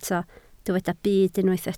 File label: "byd", Welsh